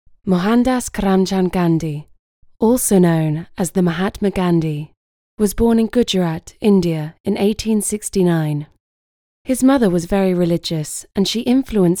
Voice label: Documentary Reel